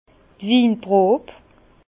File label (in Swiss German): "winprob", Bas Rhin; vin; séance de dégustation